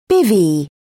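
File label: "bivvy", British English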